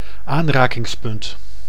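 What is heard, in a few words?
"aanrakingspunt", Dutch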